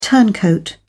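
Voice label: "turncoat", British English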